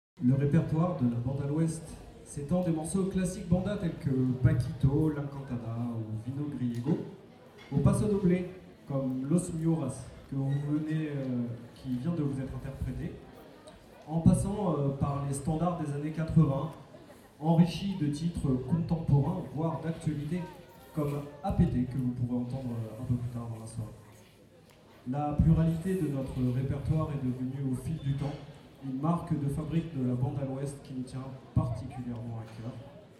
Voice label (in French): presentation